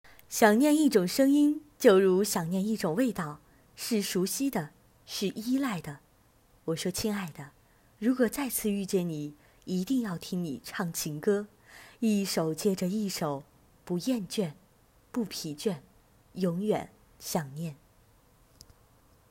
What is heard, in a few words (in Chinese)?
情感电台旁白